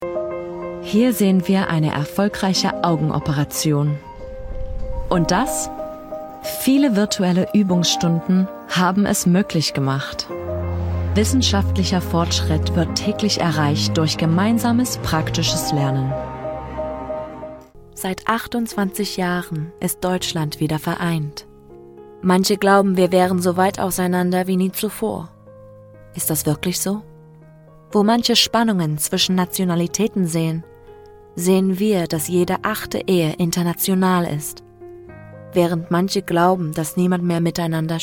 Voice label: German